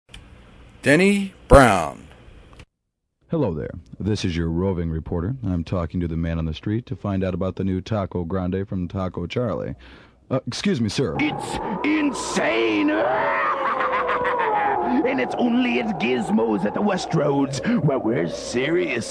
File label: Commercial, Male voices